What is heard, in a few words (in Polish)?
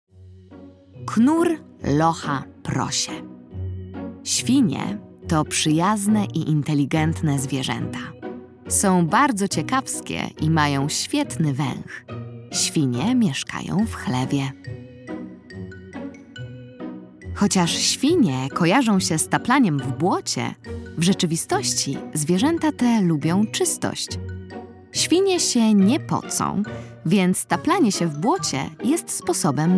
narracja; czasopismo mis; lato; knur locha prosie ciekawostka